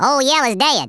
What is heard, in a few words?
fatality, Redneck